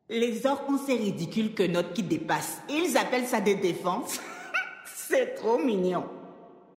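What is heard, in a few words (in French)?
French Female Blague; Troll Zandalari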